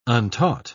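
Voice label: "untaught", British English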